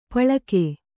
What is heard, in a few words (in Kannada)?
"ಪಳ್ಕೆ", Kannada